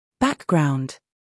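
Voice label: "scandal", American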